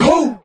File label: "poo" male; human